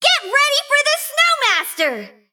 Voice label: Female Mechagnome Emote Greetings

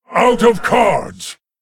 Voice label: Male Lich No Cards

